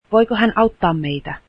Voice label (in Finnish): finnish can she help us, Finnish